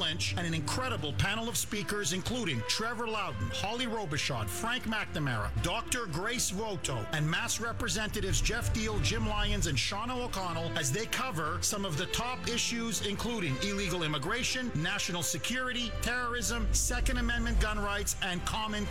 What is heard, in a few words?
Conference Radio AD; Jeff Kuhner